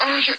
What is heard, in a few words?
jibjab FUNKAY, lo-fi jibber jabber